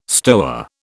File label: "stoa", British